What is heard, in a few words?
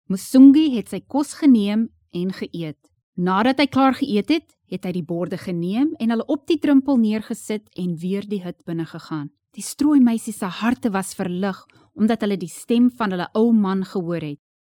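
Afrikaans, female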